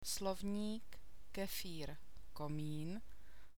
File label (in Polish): ii przyklady, czeski; CZESKI ALFABET